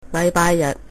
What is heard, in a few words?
sunday, Cantonese